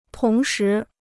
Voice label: "同时", Chinese

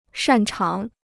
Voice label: "擅长", Chinese

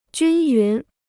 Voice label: "均匀", Chinese

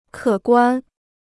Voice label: "可观", Chinese

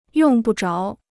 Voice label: "用不着", Chinese

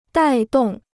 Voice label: "带动", Chinese

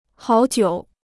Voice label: "好久", Chinese

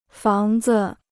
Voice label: "房子", Chinese

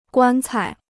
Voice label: "棺材", Chinese

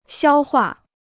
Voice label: "消化", Chinese

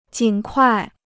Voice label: "尽快", Chinese

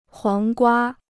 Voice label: "黄瓜", Chinese

